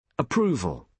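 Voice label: "approval", British English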